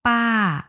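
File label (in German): tante ältere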